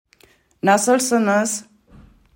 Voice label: "Nasılsıñız?", Crimean Tatar; language journey